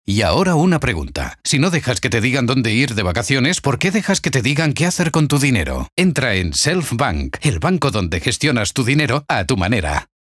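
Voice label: comercial, Spanish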